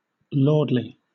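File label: "lordly", British English; pronunciations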